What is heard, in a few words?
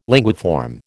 "linguiform", American